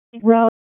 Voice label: Police Spec Ops Tac 4, p25; Raleigh; wake